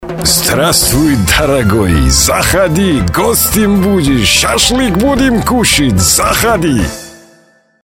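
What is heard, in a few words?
Gruzin, Georgian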